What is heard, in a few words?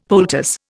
"poultice", Indian; Dictionary